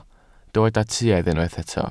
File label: "tuedd", Welsh